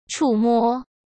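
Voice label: "触摸", Mandarin Chinese; chinese-flashcards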